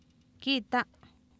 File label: kIitA, Oapan minimal pairs female; Oapan minimal pairs 1998